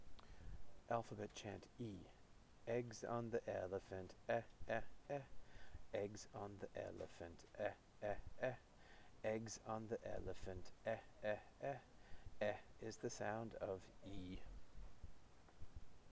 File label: alphabet chant 'e' fast